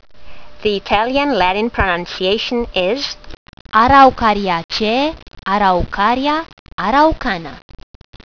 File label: latin; Araucaria araucana